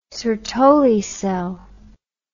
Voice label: "sertoli cell", English